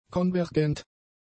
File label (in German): "konvergent", German; adjectives